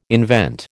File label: "invent", American